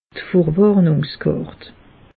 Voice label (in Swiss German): "vorwarnungskart", alsace; meteo